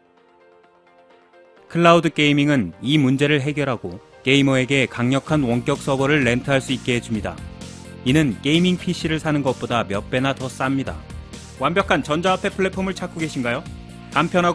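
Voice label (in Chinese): 韩语男专题片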